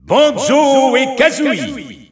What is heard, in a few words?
French Announcer; Banjo & Kazooie; SSBU